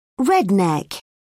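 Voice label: "redneck", English, British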